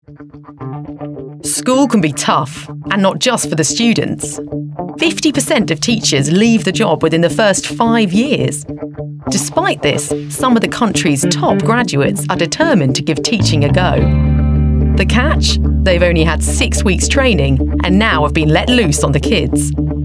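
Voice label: documentary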